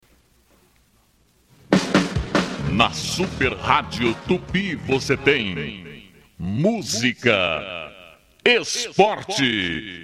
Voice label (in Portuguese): Vinheta; Radio Tupi; 1150 kHz